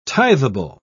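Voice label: "tithable", British English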